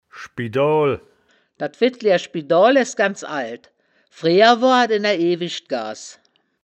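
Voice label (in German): "schbidoal", wittlicher woerterbuch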